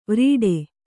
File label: "ವಿರಾಟ", kannada